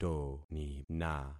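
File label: "tonina", concatenated syllables; Affixing experiment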